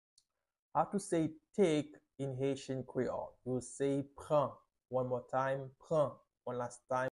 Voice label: "Pran" Haitian Creole pronunciation by a native Haitian tutor; How to say Take in Haitian Creole